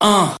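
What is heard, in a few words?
"uh", male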